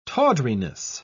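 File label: "tawdriness", British English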